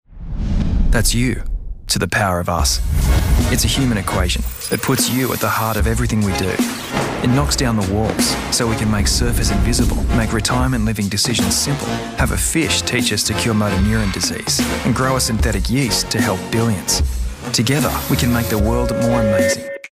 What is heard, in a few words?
australian male natural